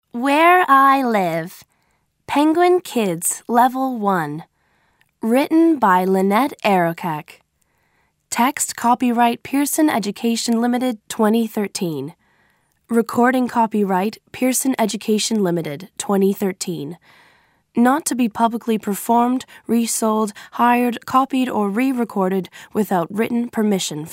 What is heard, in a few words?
Audio American English Intro Titles; Where I Live